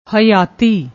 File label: "hyati", Punjabi